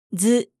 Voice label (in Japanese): "づ", Japanese